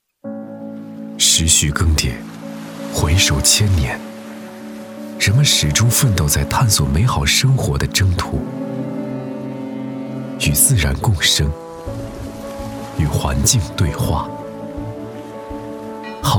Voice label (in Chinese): 公益片; 公益片环卫